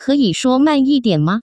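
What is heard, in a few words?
keyi shuo man yidian ma, Taiwan Mandarin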